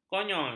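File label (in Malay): "konyol", Malay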